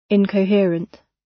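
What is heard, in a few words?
"incoherent", British; Language, linguistics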